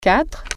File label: four, french